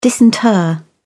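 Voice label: "disinter", English, British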